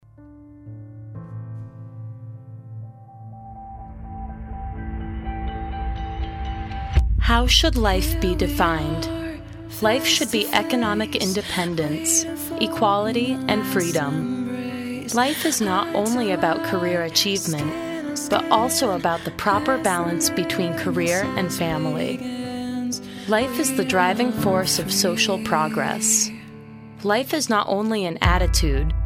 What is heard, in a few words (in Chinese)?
外籍女英 外语 美式英语 样音